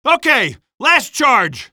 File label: male; streetwar; tunnel